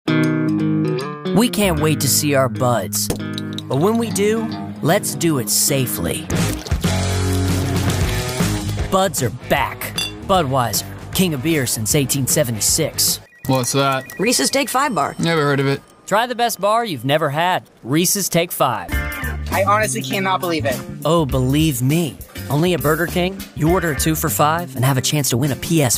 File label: Commercial